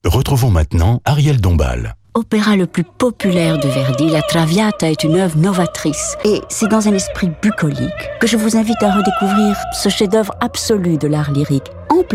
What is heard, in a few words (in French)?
Pub Radio; Radio Classique